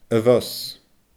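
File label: "a bhos", Scottish Gaelic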